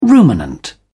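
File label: "ruminant", English, British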